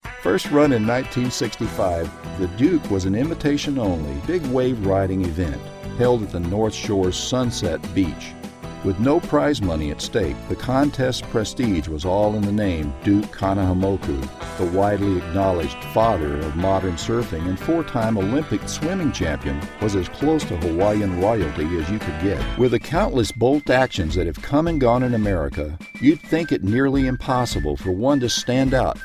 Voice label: Narr